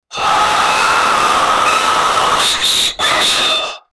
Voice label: creepy loud gibberish whi